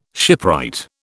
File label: "shipwright", British